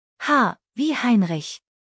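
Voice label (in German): "Heinrich", German spelling alphabet; H wie Heinrich; buchstabierung alt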